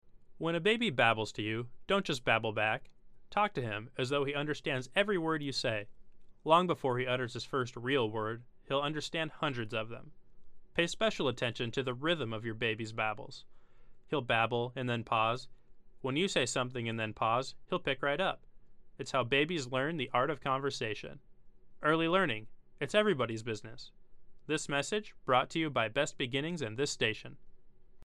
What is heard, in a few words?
psa babbling